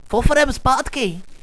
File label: fallback, radio